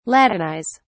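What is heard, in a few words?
"latinize", British English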